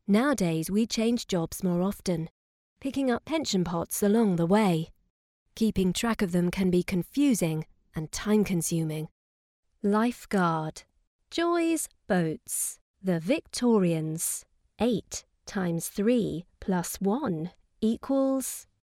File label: locutora inglesa english voiceover